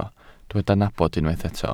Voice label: "nabod", Welsh